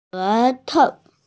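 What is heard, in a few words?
"tongue", Mandarin Chinese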